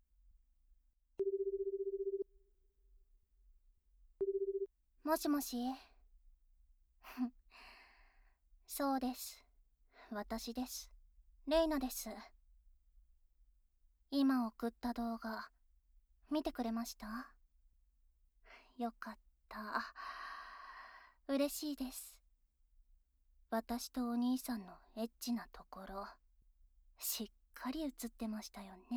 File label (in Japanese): ある日の電話（効果音あり）